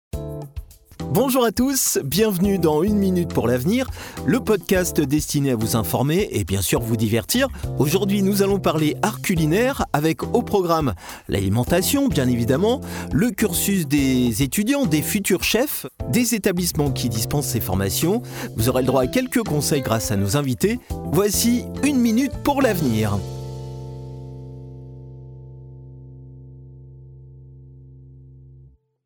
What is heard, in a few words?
french podcasts